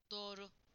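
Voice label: "correct", Turkish; PictureDictionary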